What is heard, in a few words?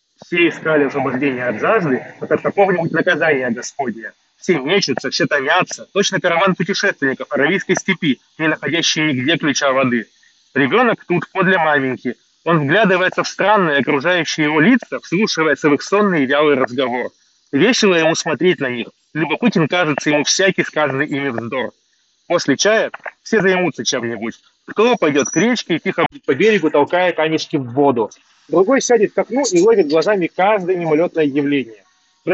Mic noise; xiaomi buds 6